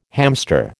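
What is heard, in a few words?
"hamster", American English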